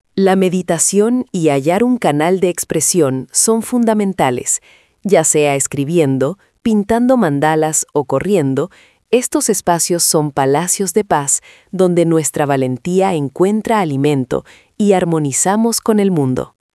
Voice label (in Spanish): locucion